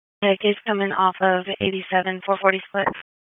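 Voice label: Raleigh Police Southeast District Dispatch, p25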